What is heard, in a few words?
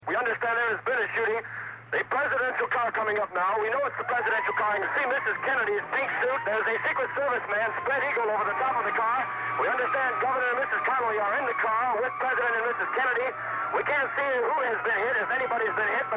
RADIO REPORT; JFK